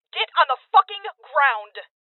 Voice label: Order To Surrender Female, Voices

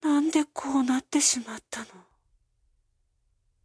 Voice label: Awaken Babble; Zara

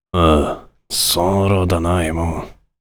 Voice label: warrior conversation emotion thinking

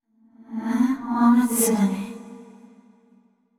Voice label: surprised; yamiko

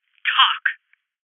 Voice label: Voices Interrogate Female

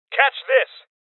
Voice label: Voices Throw Clip Male Young; rebel cops 2019 gamerip

